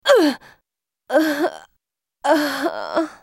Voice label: dying, en US; MissFortune